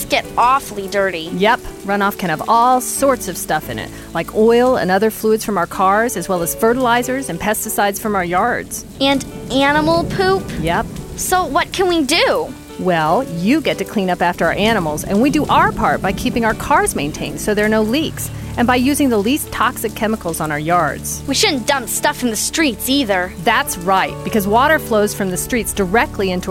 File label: STORMWATER RADIO